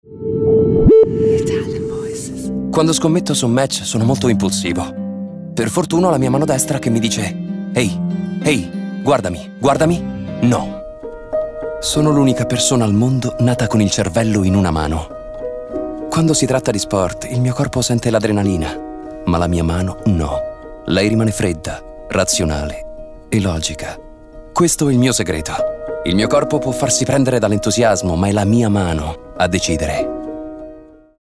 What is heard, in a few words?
ITALIAN VOICES Natural Contemporary, male voices